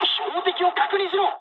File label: player friendly fire mood med, speech jp; War Thunder